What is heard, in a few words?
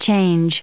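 "changing", US English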